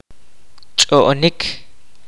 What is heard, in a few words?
"speak language", kaqchikel